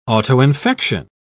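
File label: "autoinfection", English, British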